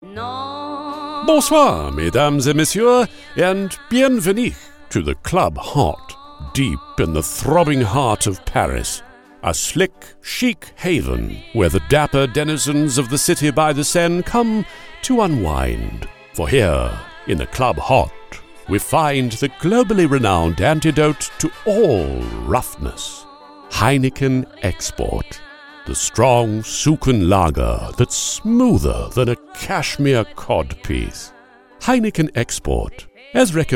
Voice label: Stephen Fry Voice Impression

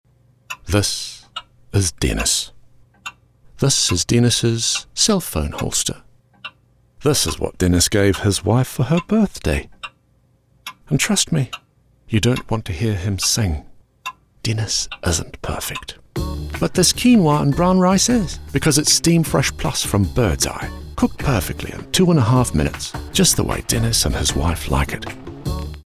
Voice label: New Zealand sample

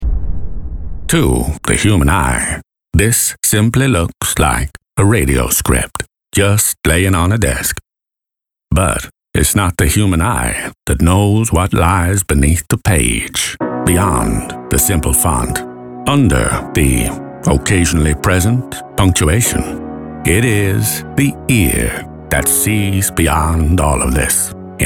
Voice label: US WISE IMPRESSION; MORGAN FREEMAN

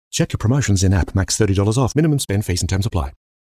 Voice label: Fast Disclaimer voice

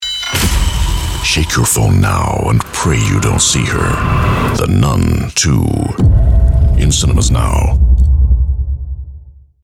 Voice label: PROMO trailer; The Nun 2

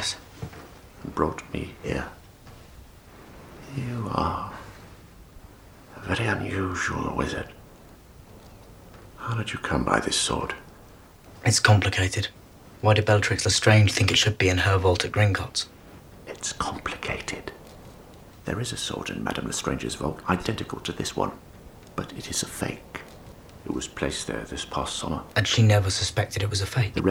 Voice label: GOBLIN voice; GRIPHOOK; GRIPHOOK orig actor is Warwick Davis

